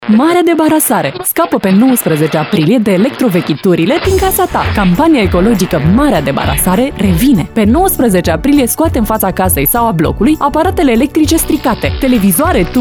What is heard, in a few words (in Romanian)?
spot radio